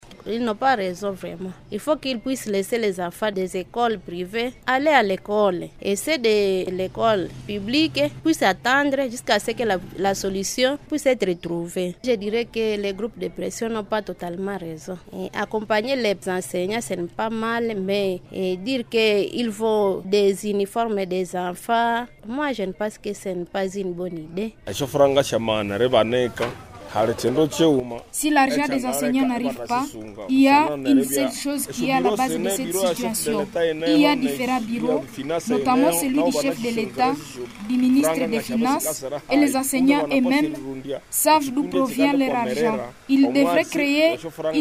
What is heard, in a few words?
VOX POP, FR; Campagne zéro uniforme à Butembo, les avis des parents divergent